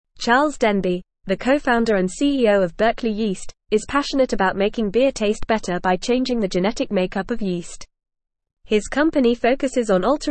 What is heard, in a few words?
English Upper Intermediate FAST Reading; Genetic Modification in Brewing Industry Approaches; English Newsroom